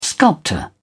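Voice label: "sculptor", English, British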